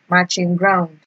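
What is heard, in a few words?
"matching ground", Nigerian Pidgin, female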